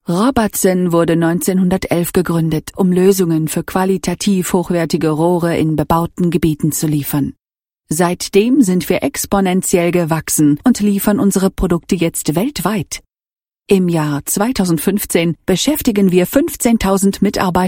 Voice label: corporate external